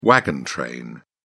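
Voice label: "wagon train", British English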